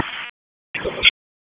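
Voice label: Police Dispatch; Raleigh; Southwest District; wake; p25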